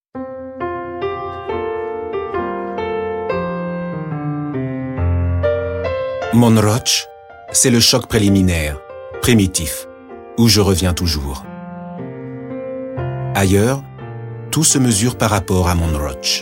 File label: FRENCH, MALE